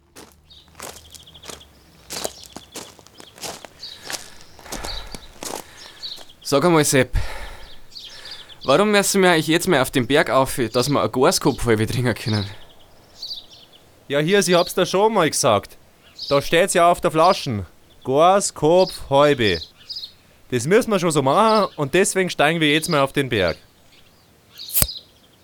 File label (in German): Werbung; Irlbacher